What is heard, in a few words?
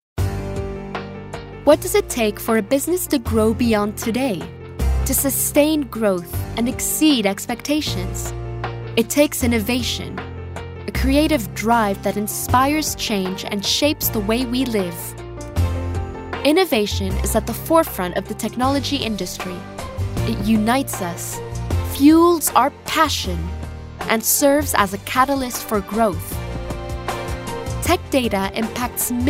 Corporate